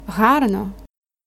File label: dialogue, Ukrainian